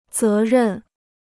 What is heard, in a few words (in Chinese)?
"责任", Chinese